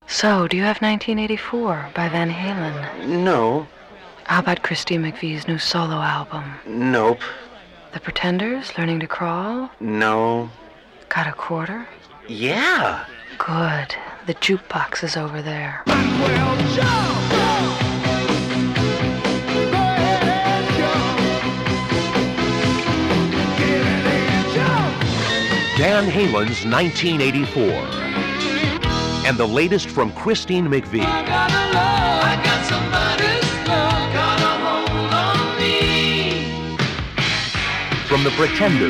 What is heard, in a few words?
Radio Commercials; Warner Bros; Best New Music On Warner Bros LPs